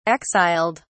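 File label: "exiled", British English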